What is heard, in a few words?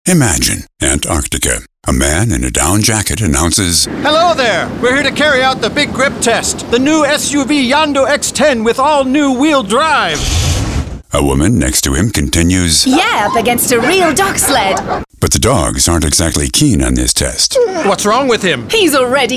Radio; Antarktica